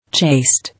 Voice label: "Chaste", English